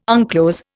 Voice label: "unclose", Indian